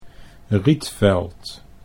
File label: "Rietveld" Dutch